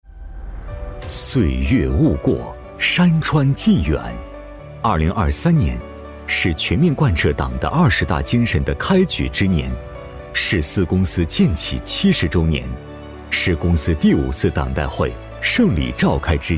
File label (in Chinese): 男 工程; 中铁四局四公司